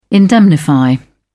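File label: "indemnify", British English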